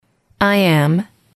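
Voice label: "I am", English, female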